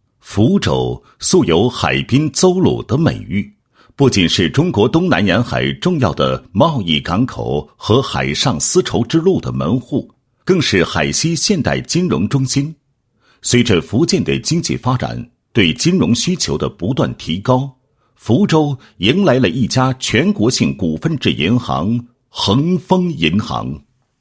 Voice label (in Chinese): 男 工作汇报 工作成果解说; 恒丰银行